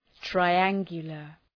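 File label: "triangular", English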